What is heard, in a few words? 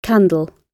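"candle" British English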